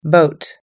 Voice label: "boat", us english